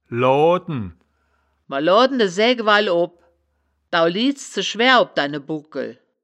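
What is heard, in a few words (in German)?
"loaden", wittlicher woerterbuch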